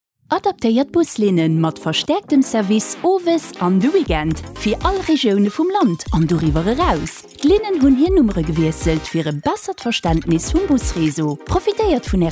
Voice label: radio spot